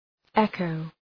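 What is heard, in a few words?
"echo", English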